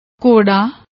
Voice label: horses, punjabi